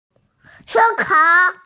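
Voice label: "si1kao3", Mandarin Chinese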